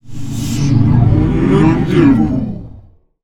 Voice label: Zombie